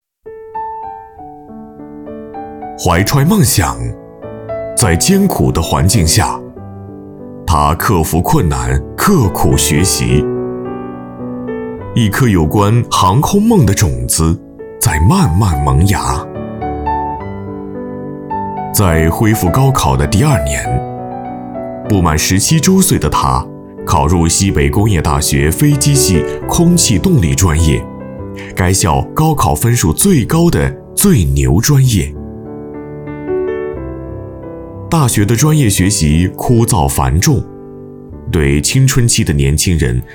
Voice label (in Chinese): 男 专题宣传 画外音解说 人物介绍